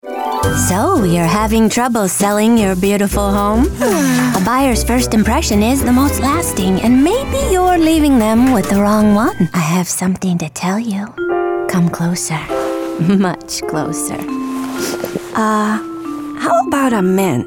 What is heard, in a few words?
Commercial